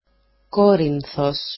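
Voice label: "korinthos", Greek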